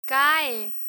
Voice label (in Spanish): "cae", Spanish; irregular spanish verbs; learn spanish